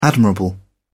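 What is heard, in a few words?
"admirable", British English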